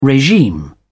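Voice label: "regime", British English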